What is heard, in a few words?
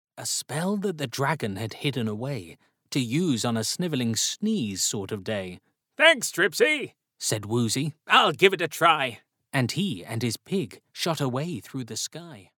English British animation